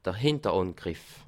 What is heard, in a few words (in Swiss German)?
"hinter", alsace